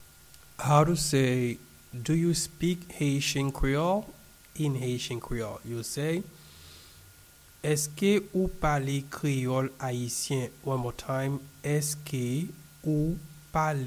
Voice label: Do you speak Haitian Creole in Haitian Creole Eske ou pale Kreyol Ayisyen pronunciation